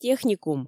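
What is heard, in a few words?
"техникум", Komi, female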